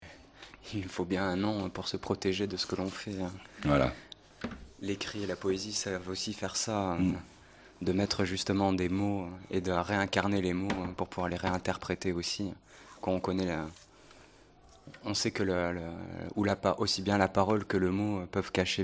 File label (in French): entrevue; Julien Blaine; SignalsurBruit